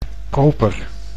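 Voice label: "koper", Dutch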